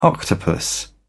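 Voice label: "octopus", English, British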